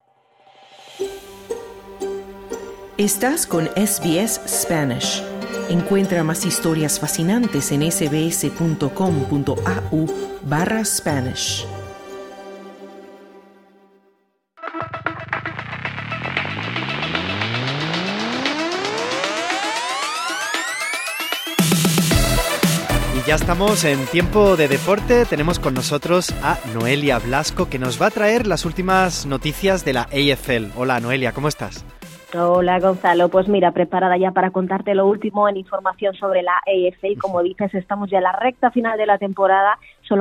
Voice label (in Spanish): AFL en español; SBS Spanish; Geelong Cats hunde a los Swans por 111-68 y se mantienen segundos en la clasificación